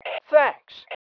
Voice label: THANKS, MALE

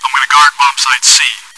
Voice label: chitchat; svencoop

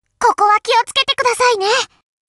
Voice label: look out, voice commands Anime Loli; svencoop

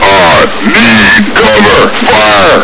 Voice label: backup, voice commands, grunt; svencoop

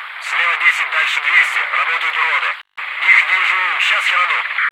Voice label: see enemy, russian; svencoop; russian sounds

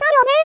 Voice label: yes, voice commands; svencoop; Miku

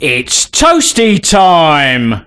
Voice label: Hello, male, voice commands; svencoop

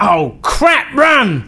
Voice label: Run, male, voice commands; svencoop

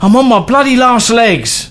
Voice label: Dying, male, voice commands; svencoop

